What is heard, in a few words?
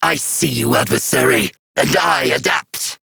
Battle Taunt, Dialog; Kuva Lich; Persona C